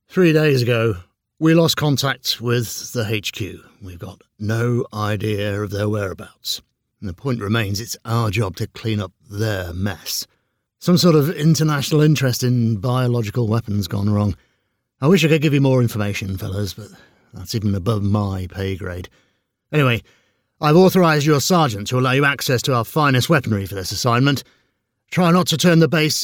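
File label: voiceover character game zombie war briefing, British character voice over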